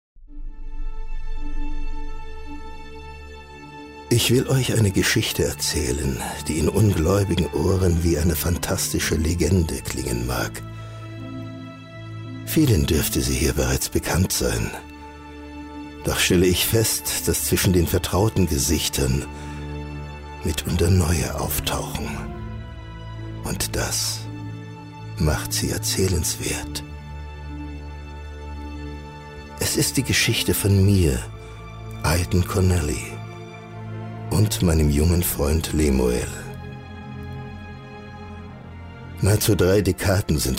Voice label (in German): hoerspiel erzaehler; blauerplanet emergo